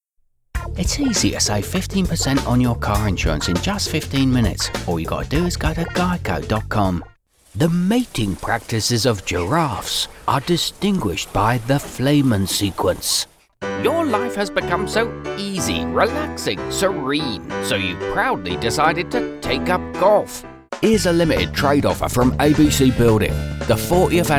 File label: Characters Accents Voice over